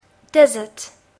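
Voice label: "desert", English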